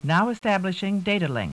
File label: Computer voice saying"Establishing data link"